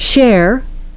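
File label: "share", English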